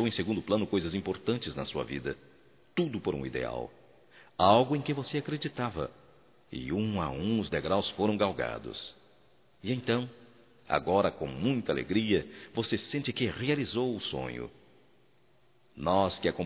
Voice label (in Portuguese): PARABÉNS VC VENCEU (M); masc; Telemensagens 2017